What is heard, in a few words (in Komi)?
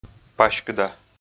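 "паськыда", Komi, male; audio dict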